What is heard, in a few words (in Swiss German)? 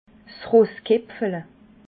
"rosskepfle", alsace